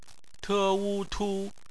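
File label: "tu", Mandarin Chinese; phonetics; bpmf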